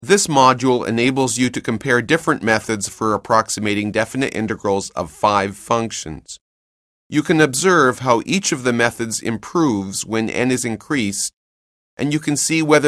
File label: narration; CALCULUS 7E; cengage